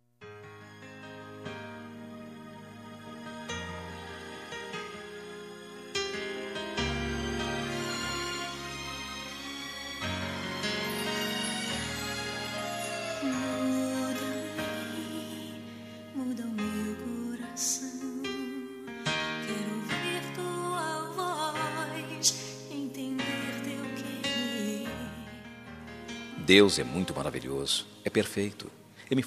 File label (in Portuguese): Evang Aniv. Romantico M